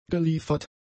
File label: "geliefert", German; adjectives